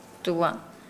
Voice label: Nukuoro; east